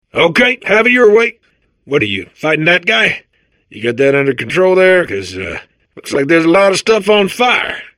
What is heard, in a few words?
babble; portal2